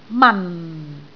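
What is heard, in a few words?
"man4", Cantonese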